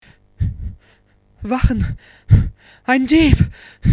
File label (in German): Dieb (Panisch)